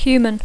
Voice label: "human", English; adjectiu